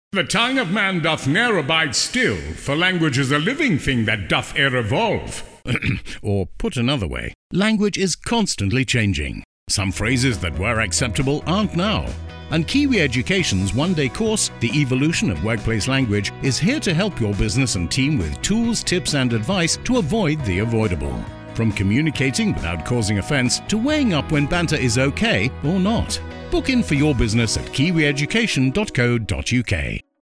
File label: radio ad; kiwi education